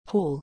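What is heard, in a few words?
"hall", English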